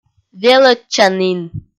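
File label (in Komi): "велӧдчанін", Komi, female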